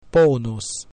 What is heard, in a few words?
"bonus", latin